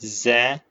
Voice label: short voyels arabic alphabet